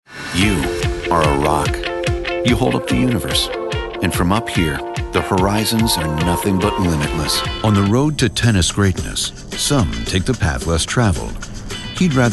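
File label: commercial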